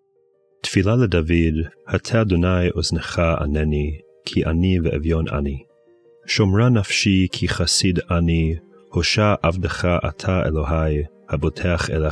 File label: poetry and prayer psalm hebrew; Meir Soloveichik